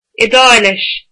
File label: "Italian", Irish